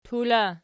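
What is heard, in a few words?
"tula" nominative, Archi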